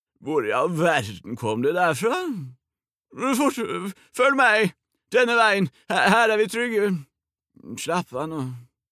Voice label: dub, character